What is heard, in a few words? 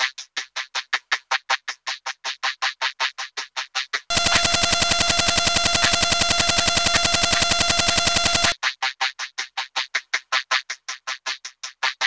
VOCOD, VOCODA LOOPS; Zero G; Malice In Wonderland